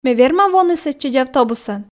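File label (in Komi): ме верма воны сэтчӧдз автобусӧн, Komi, female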